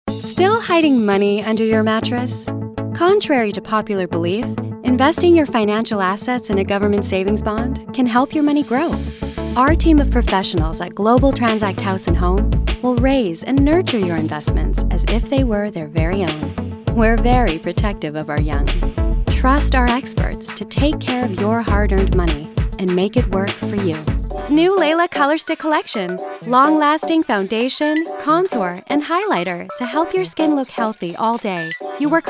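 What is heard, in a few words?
voice over commercial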